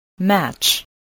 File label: "match", spelling voice